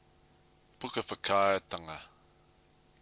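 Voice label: "pukawhakaaetanga", Māori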